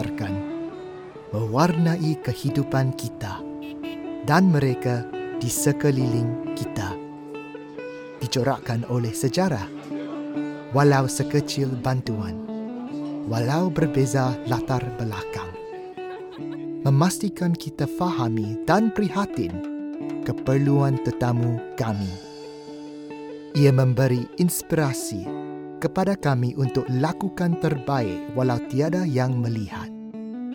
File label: Airlines MALAY; Malaysia